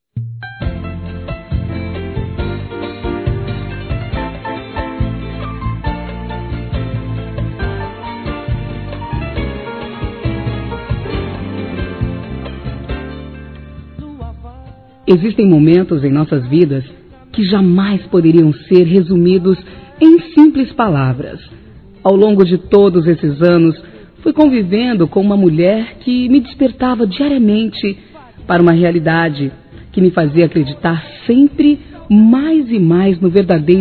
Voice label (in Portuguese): MÃE F